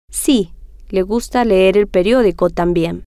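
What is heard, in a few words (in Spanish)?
si Le Gusta Leer Periodico Tambien, spanish, female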